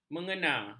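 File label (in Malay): "mengena", Malay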